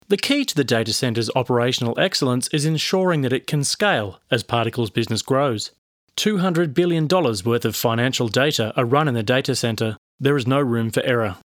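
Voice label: eLEARNING NARRATION, natural, friendly Australian accent, Natural Male Voice; Explainer Videos / Technical Explainer Narration; PARTICLE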